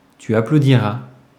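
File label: "tu applaudiras", French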